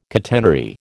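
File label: "catenary", American English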